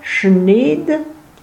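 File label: "greverin Chenéde", Franco-Provençal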